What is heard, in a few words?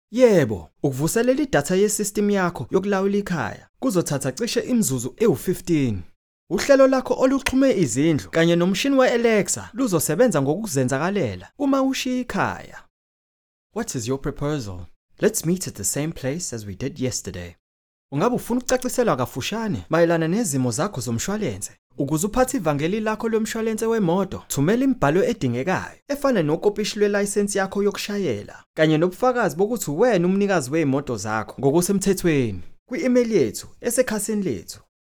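Zulu, male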